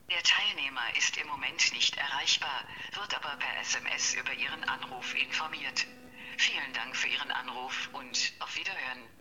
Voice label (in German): Abwesenheisnotiz Handy